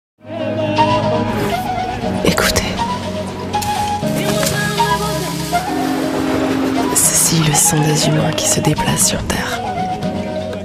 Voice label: french campaign voice corporate